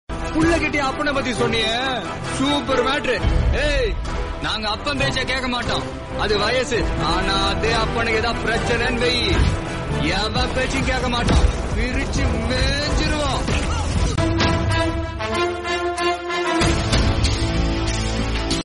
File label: dialogue tamil